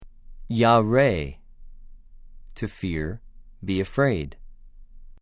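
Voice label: "יָרֵא", Hebrew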